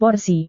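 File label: "porsi", Indonesian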